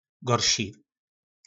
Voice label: "Gorši", nářečí Po našimu; Horší

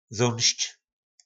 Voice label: "Zónšč", po našimu; Zajít

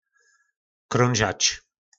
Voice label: "Krónžač", nářečí Po našimu; Krouhat

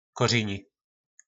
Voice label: "Kořyni", nářečí Po našimu; Koření

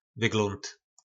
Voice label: "Vyglónd", nářečí Po našimu; Vzhled

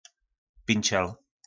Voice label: "Pinčel", nářečí Po našimu; Pěšec (šachový)

